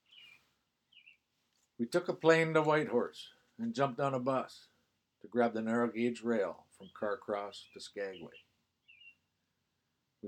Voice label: narrative